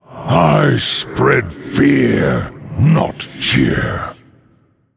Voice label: Male Undead Greetings

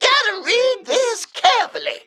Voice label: Male Mech Emote; Unidentified Contract

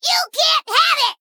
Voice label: Female Sprite Attack

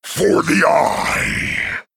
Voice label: Male Worgen Attack

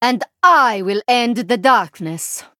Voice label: Female Draenei MIRROR START

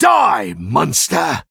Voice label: Male Human Attack

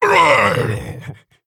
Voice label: Male Orc Death; Kargath

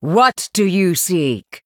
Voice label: Female Human Greetings

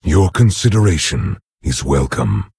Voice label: Orc Emote Thanks; Nerzhul

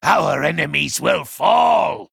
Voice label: Male Blood Elf Play

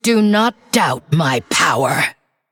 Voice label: Female Undead

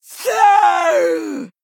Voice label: Female Troll Death

